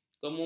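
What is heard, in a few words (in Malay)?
"kemumu", Malay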